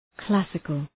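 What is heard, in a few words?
"classical", English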